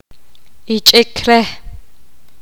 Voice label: poqomam estar enojado

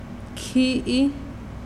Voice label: "sangre", mazahua

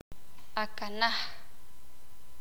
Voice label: "panal", Sakapulteko

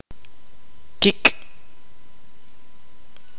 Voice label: "sangre", Kaqchikel

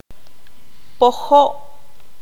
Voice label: dividir, qanjobal

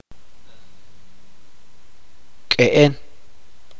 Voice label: "piedra", chuj

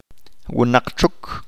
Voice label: abeja, chalchiteko

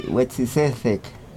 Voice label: Nawat palabras granizo; pipil

